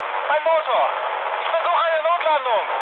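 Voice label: German damaged engine mood med, speech; War Thunder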